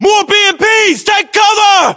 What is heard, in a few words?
voiceovers, US; english